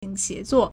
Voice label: "xie2zuo4", Chinese